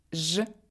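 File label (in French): son j, Russian; phonetique et graphie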